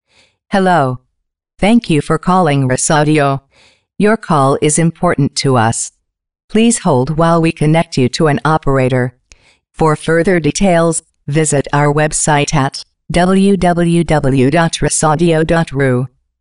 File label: robot